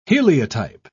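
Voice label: "heliotype", British English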